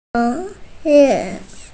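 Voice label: "zé rèn", Mandarin Chinese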